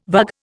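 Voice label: "vug", Indian English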